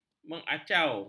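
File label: "mengacau", Malay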